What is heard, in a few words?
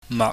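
"mak", Cantonese, low level tone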